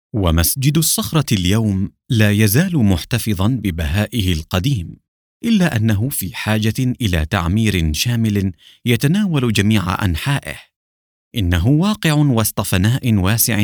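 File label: Arabic, narration; GoLocalise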